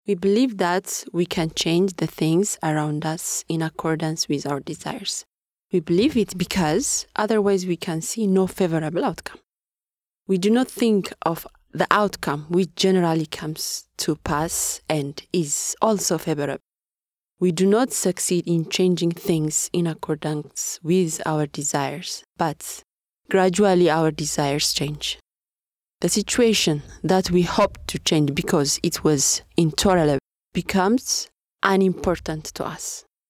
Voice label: Ethiopian F English